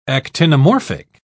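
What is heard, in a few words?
"actinomorphic", British English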